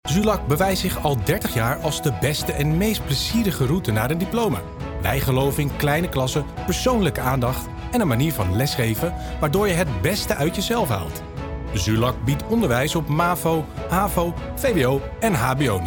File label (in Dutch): Reclame; Zulac; Rabo